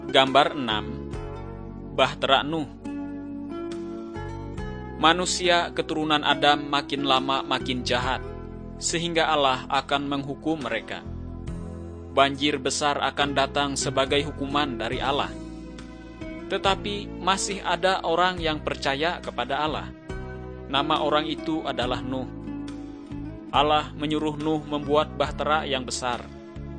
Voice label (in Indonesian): kabar baik good news bahasa indonesia